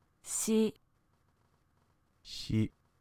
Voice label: "shi", Japanese, hiragana